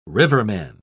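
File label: "riverman", British English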